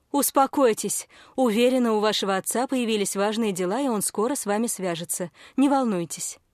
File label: policewoman